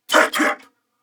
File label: Reload Male, en; Dialog; Barks; Battle; Corpus Venus; Warframe; CorpusVenusMaleB